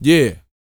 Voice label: YEAH, Vocal R&B & Dance, HIP-HOP; ILIO; Vocal Planet